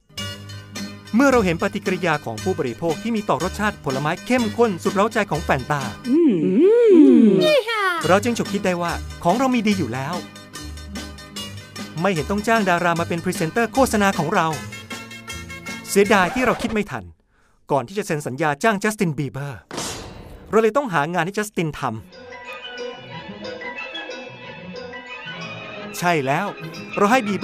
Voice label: Commercial

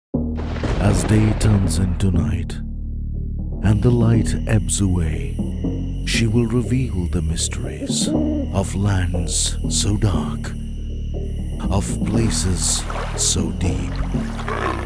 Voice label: Documentary

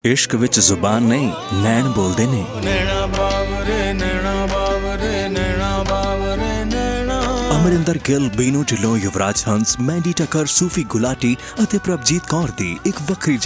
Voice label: Commercial

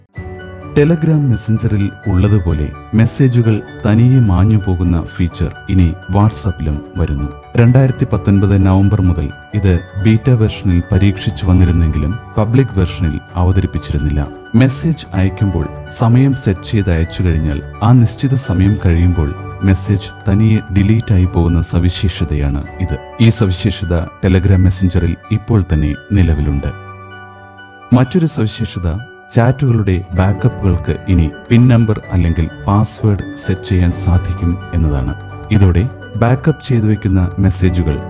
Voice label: Narration

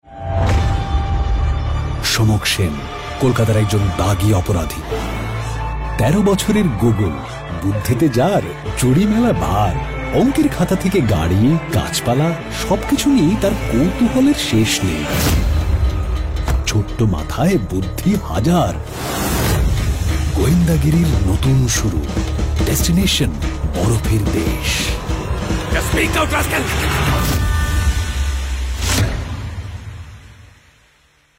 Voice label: Web Series Promo Sample

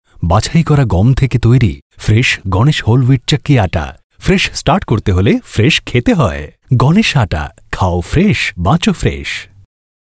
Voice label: TVC Sample

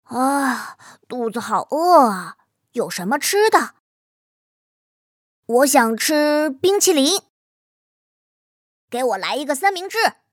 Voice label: Acting

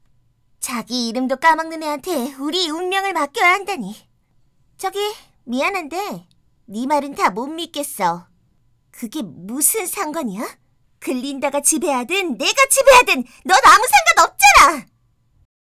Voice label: Acting

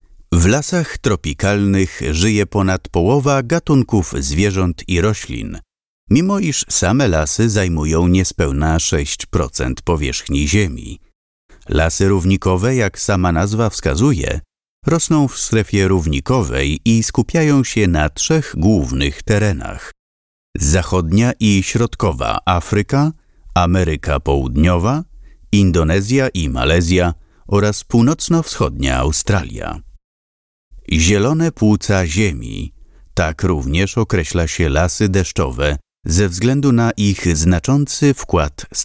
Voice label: Narration

